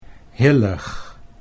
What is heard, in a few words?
"hillig", Dutch